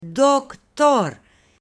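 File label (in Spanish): "doctor", Spanish, male; AppLenguaje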